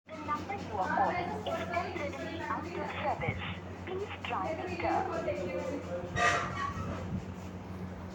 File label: call recording